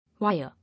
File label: "Wire", English